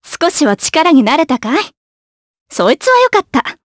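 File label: Vox Victory, voices, Japanese; Yanne; kingsraid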